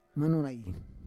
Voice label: "manorai", Roon